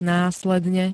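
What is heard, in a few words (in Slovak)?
"následne", Slovak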